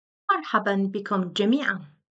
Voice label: Arabic Greetings everyone

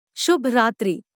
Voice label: Hindi Good night; Greetings

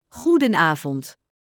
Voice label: Dutch Good evening; Greetings

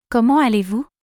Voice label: French How are you; Greetings

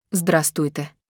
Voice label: Ukrainian Hello formal; Greetings

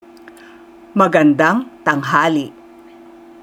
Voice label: Tagalog Good afternoon; Greetings

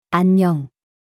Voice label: Korean Hello informal; Greetings

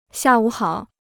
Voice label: Mandarin Good afternoon; Greetings

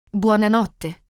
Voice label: Italian Good night; Greetings

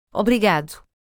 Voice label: Portuguese Thank you male speaker; Greetings

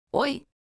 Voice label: Portuguese Hello informal; Greetings

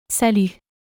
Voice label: French Hello informal; Greetings

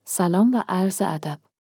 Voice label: Farsi Hello formal; Greetings

